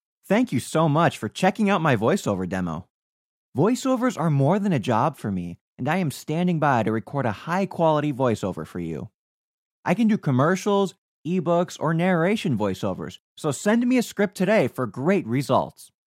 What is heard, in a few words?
voice over artist